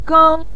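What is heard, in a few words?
"gang" neutral tone, Mandarin Chinese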